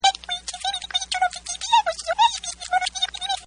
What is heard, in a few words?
Cartoon Pygmie, Cartoon Voice; Cartoons